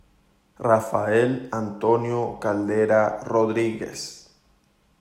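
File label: Rafael Caldera, Spanish; [rafaˈel anˈtonjo kalˈdeɾa roˈðɾiɣes]; Rafael Antonio Caldera Rodríguez; Venezuelan politician and academician who was the 46th and 51st president of Venezuela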